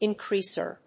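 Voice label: "increaser", English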